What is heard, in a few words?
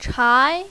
"chai2", mandarin, female